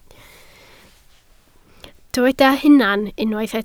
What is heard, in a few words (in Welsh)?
"hunan", Welsh